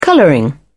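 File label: "colouring", British English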